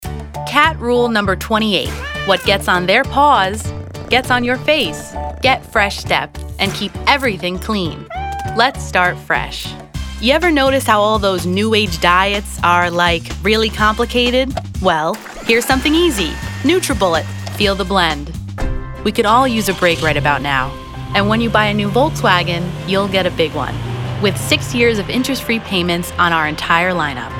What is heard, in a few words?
Commercial